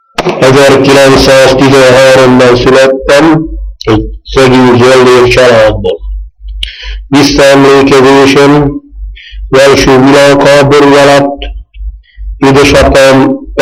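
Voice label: dialect collection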